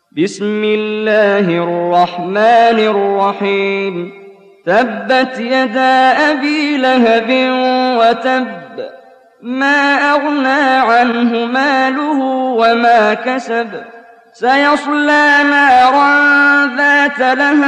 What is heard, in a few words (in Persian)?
ترتیل سوره مسد; استاد محمد جبریل